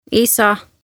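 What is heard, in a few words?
"isa", Estonian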